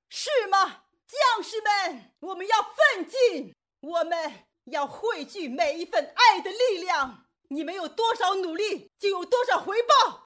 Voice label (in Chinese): 女-模仿-演讲; 芈月